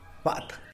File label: "baat" skunk; Tlacochahuaya Zapotec Talking Dictionary; animals